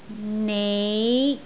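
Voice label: "nei5", Cantonese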